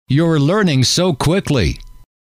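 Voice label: you are learning so quickly, male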